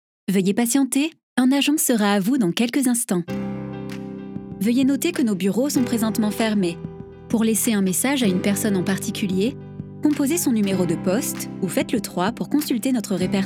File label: IVR